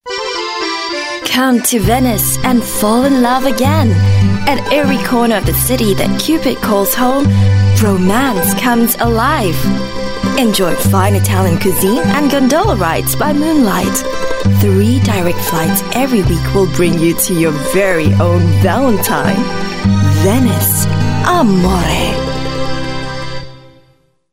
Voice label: English Singaporean Female; Italy